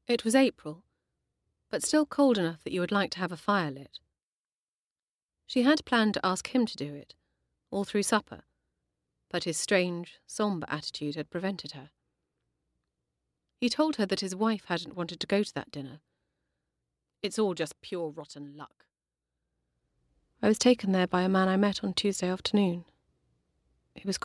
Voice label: Narrative Compilation

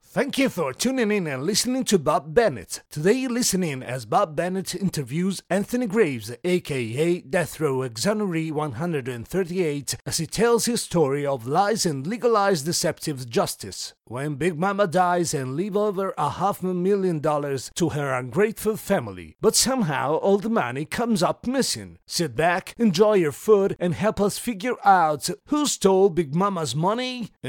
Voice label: English radio read

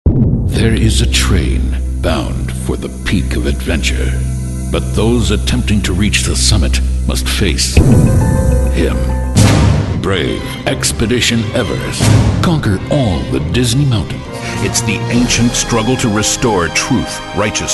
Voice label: Movie Trailer

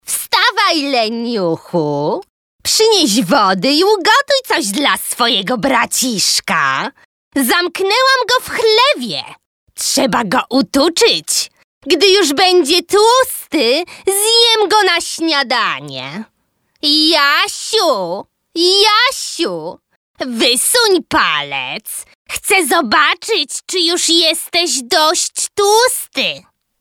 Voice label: dubbing witch

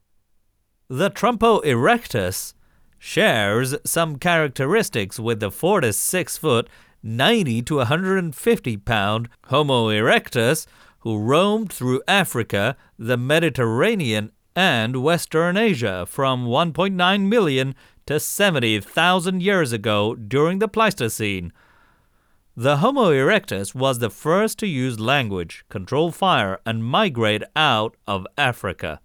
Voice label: American Accent, Audiobook; Trump O Erectus; Why Trump Lost

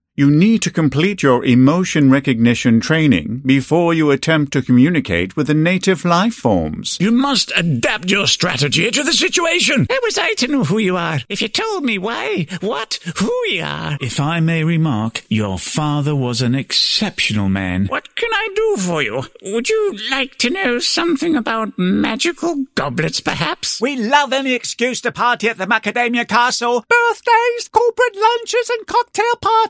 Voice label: UK Character Voices

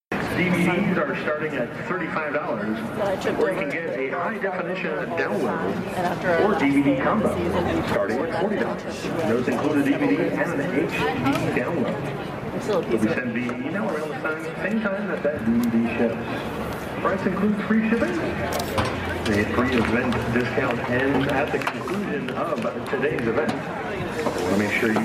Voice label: Marching Contest Announcing

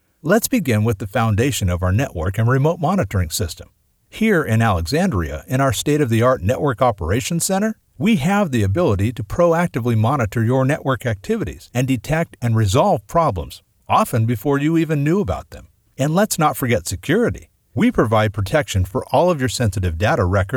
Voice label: Explainer Video

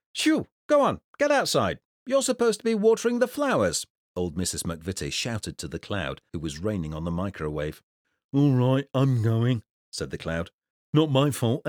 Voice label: audiobook reads

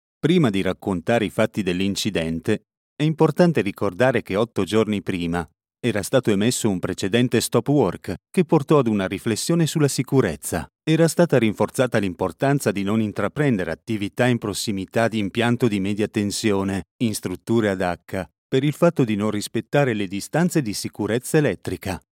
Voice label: ITA EXPLAINER VIDEO; ENEL ENERGIA

